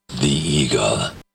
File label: Sweeper; KEGL 97-1 The Eagle